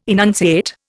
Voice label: "enunciate", Indian